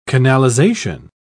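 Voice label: "canalisation", British English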